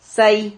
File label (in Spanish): "say", silabas